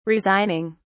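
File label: "resigning", British English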